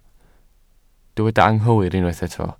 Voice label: "anghywir", Welsh